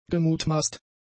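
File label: "gemutmaßt", German; adjectives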